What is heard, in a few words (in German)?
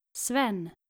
"sven", nordische jungennamen